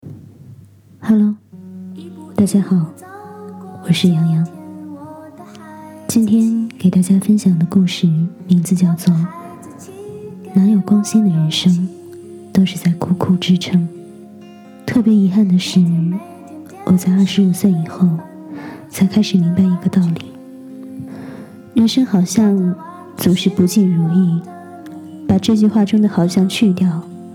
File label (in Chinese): 情感电台